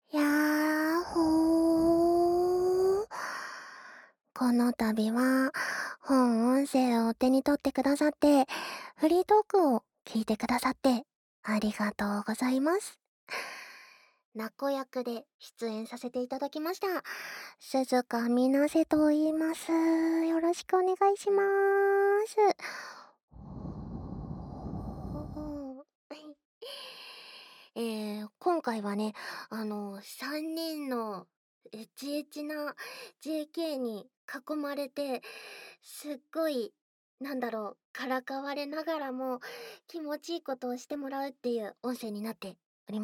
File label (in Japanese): フリートーク